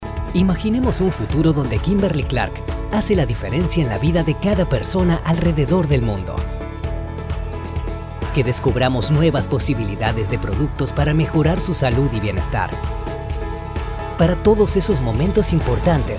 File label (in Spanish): corporativo neutro LATAM